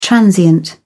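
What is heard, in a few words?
"transient", British English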